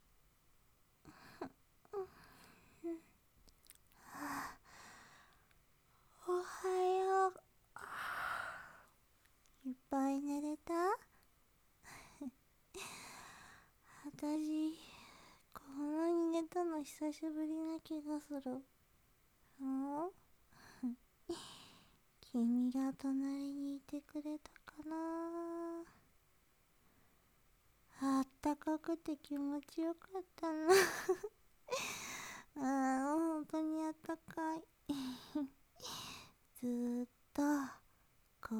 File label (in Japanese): 翌朝。寝起きのおはよう（アドリブボイスドラマ）_モノラル